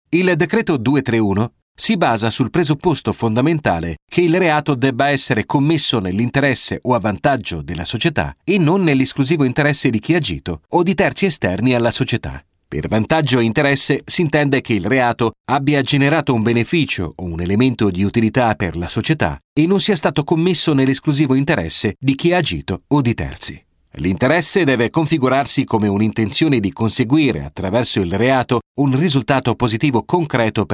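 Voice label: Italian e-learning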